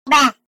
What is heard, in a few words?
bruh 2x speed